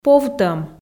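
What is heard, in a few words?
"повтӧм", Komi, female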